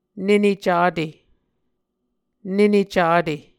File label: "nene cate", Muscogee